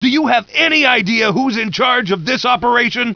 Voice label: charge, fgrunt